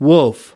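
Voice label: "wolf", US English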